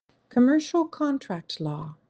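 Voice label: "Commercial contract law", English; legal vocabulary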